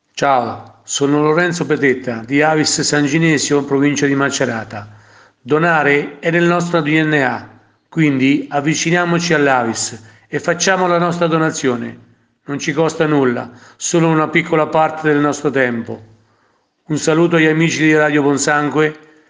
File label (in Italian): promo